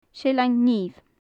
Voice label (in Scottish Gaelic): "seillean nimh", Gaelic; hornet; connspeach; connspeachan, beachan mòra, seilleanan-nimhe; Faclan Nàdair